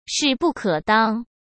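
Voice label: "势不可当", Mandarin Chinese; chinese flashcards; hsk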